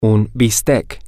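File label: steak, Spanish, male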